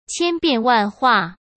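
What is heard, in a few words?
"千变万化", Mandarin Chinese; hsk; chinese-flashcards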